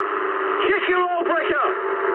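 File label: damaged oil mood high, speech English; War Thunder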